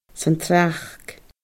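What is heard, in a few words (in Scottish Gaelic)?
"santsneachd", Scottish Gaelic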